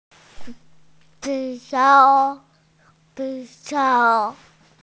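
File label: "pi2ao3", Mandarin Chinese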